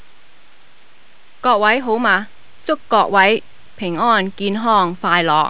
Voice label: cantonese; Golden Record